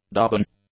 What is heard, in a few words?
"dubbin", English; nouns